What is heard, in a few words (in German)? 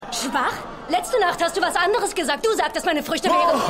Teilnehmerin